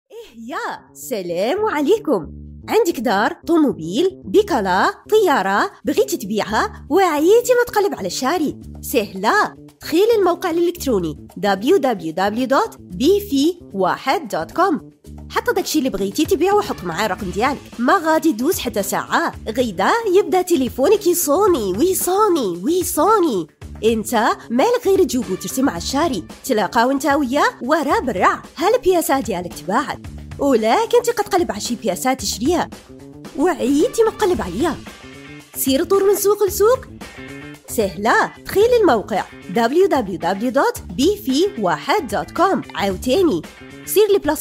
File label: Moroccan commercial, voiceover